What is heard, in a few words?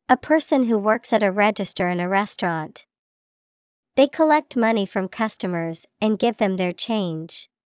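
cashier, English vocabulary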